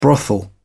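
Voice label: "brothel", British English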